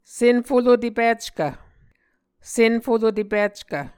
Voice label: "senfolotepickv", Muscogee